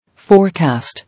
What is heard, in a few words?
"Forecast", English